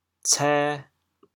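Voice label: "cê2", Teochew, chaoyang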